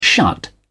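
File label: "shut", British English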